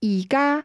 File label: "now", cantonese